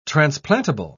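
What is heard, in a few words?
"transplantable", British English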